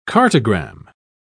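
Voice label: "cartogram", English, British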